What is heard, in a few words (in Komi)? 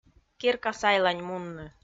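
"керка сайлань мунны", Komi, female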